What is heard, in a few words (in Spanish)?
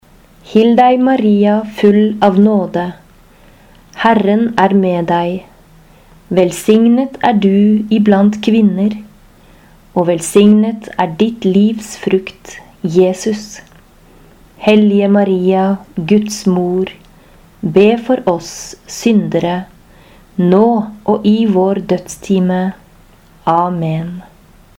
ave maria noruegues normal